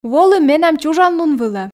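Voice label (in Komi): "волы менам чужан лун вылӧ", Komi, female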